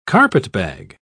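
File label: "carpetbag", English, British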